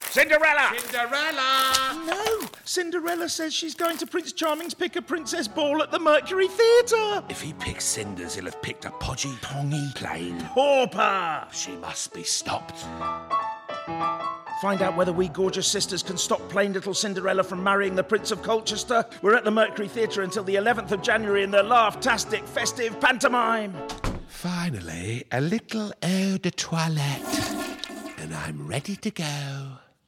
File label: radio advert